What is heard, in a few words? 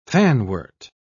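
"fanwort", British English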